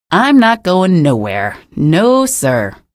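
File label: citizen remarks, female; source engine; episodic; episode 1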